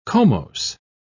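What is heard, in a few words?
"comose", British English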